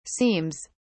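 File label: "seems", British English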